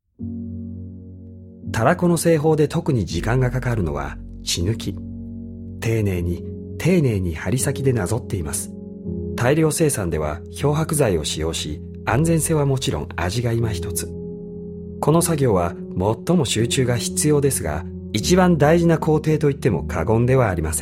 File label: male japanese corporate